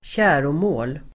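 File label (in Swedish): "käromål", Swedish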